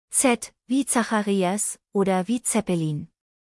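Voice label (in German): "zacharias", German; buchstabierung alt; Buchstabieren Sie bitte!; Z wie Zacharias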